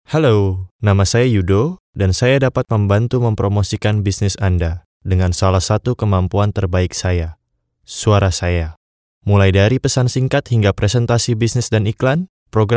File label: indonesian m